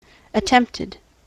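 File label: "attempted", US English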